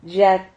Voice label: "llad", Silabas

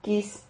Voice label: "quis", Silabas

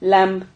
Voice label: "lamb", Silabas

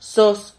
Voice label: "sos", silabas

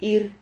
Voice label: "hir", Silabas

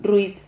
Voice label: "rruid", Silabas

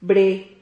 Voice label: "bre", Silabas

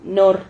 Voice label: "nor", Silabas

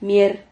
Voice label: "mier", Silabas

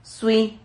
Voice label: "sui", silabas

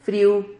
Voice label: "friu", Silabas

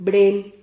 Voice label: "brel", Silabas

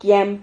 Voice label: "quian", Silabas

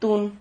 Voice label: "tun", Silabas

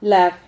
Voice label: "lab", Silabas

